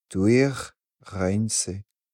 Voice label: civ male repair, napatan

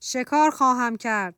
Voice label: civ female hunt, persian

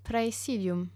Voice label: garrison, romans male voice

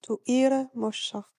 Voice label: civ female march, napatan

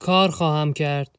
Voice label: male gather, persian

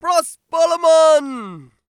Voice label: male attack, greek

